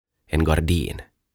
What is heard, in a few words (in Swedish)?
"En Gardin" "Verhot"